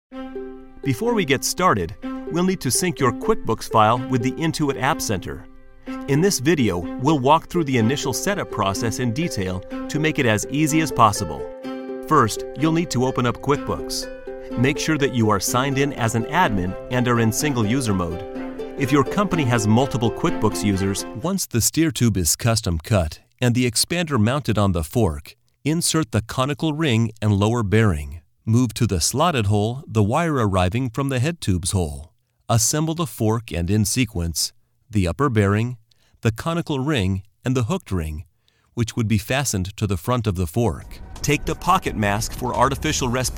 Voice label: E Learning

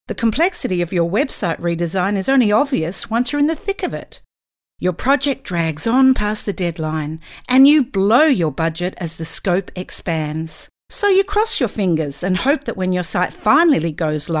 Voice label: Sales Corporate Female Voiceover

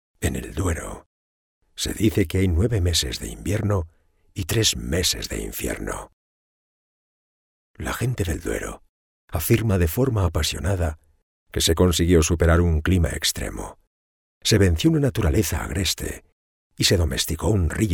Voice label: narrator wines cellars

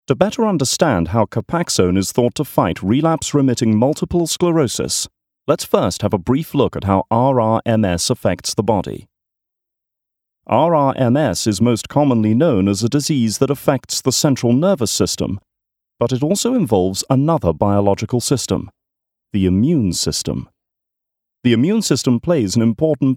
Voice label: Corporate Medical

